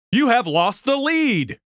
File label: lost lead, announcer; warfork; score